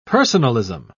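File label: "personalism", British English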